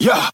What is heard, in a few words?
"yo", Voice